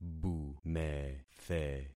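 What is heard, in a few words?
"bumethe", concatenated syllables; Affixing experiment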